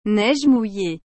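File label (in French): "neige mouillée", French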